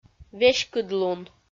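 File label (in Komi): "веськыдлун", Komi, female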